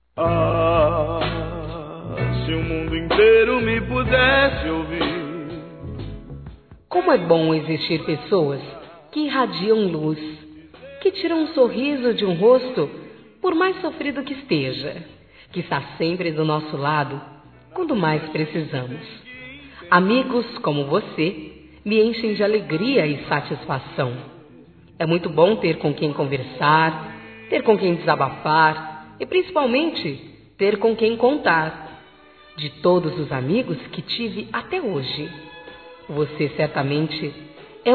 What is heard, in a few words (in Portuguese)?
Feminino, Telemensagens; Amigo Seu Lado Feminino; Amizade